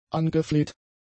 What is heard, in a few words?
"angefleht", German; adjectives